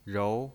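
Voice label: "rou", Mandarin Chinese pinyin voice, second tone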